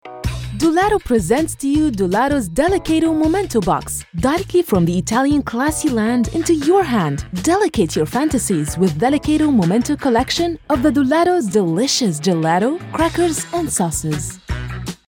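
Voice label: Arabic English, female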